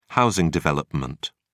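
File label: "housing development", British English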